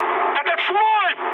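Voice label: player friendly fire, mood med, Russian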